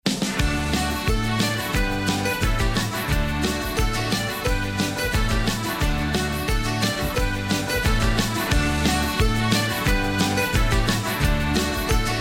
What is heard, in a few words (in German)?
Mundart; Pfarrassistentin